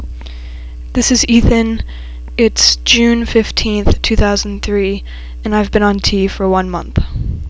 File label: One Month, testosterone, voiceclips; transition